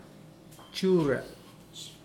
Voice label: other baracuda, Mokilese

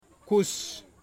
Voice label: "coconut tree", Wamut

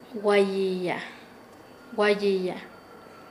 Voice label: Nasa Yuwe; arder

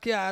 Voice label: "kia", Ansus; very

